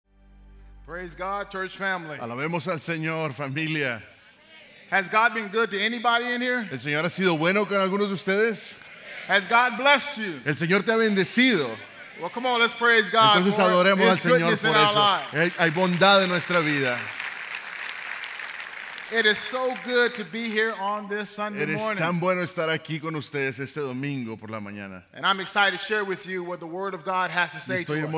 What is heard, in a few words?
SERMON SPA